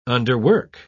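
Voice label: "underwork", British English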